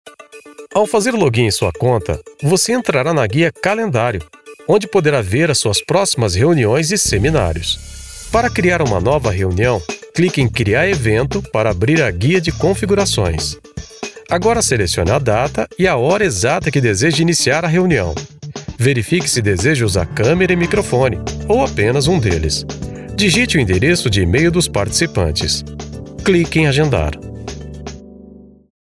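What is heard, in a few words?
e-learning, Portuguese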